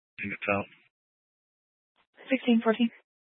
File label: Police Dispatch; Police 1 Dispatch